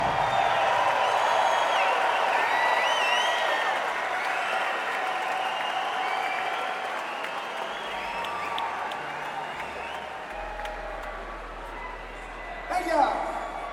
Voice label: Chatter